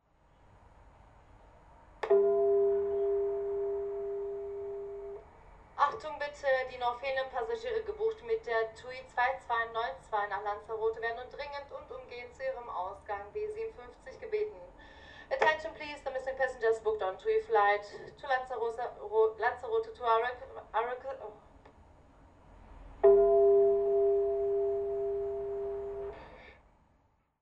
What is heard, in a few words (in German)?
Flughafen Ansagen; Tui Fly 2292 nach Lanzarote